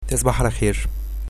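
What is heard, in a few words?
good night, Egyptian Arabic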